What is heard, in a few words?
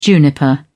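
"juniper", British English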